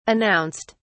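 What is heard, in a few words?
"announced", British English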